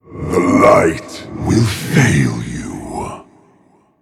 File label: Demon Hero Greetings; Cariel